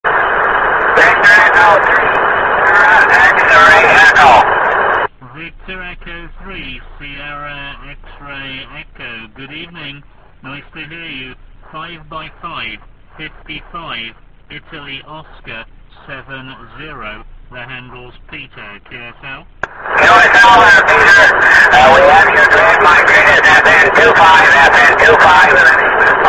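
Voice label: 2nd amateur radio contact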